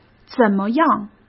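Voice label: "怎么样", Mandarin Chinese; chinese-flashcards; hsk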